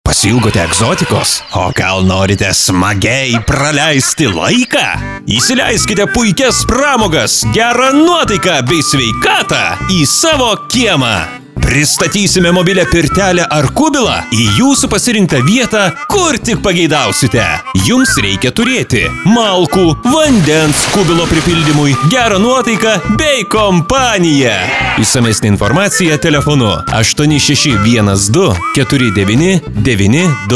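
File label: lithuanian male commercial; voice demos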